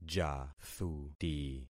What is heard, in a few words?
"jathuti", concat syllables; Affixing experiment